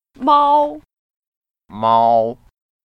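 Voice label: "mao1", Mandarin Chinese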